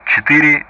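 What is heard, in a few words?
Speech Russian; DCS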